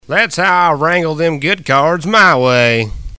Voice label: Redneck Voice, Player Wins Wrangled Them Good Cards; blackjack